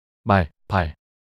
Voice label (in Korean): "말발" 낱소리 남자